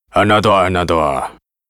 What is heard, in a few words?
yes, zh